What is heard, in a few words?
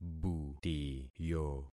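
"butiyo", concatenated syllables; Affixing experiment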